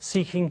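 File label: "seeking", Standard Southern British English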